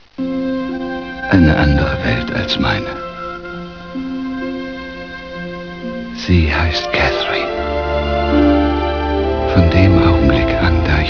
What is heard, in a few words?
deutsch, opening credits; Das ist die Welt der Reichen und der Maechtigen. Es ist ihre Welt, eine andere Welt als meine.; GENERIQUE DE DEBUT EN V.O.; LA BELLE ET LA BETE